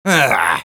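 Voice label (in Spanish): negative vocalization, Spanish; Sniper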